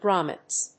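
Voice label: "Grommets", English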